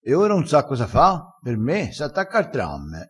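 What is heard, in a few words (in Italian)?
"attaccassi ar tramme", dialettale crespinese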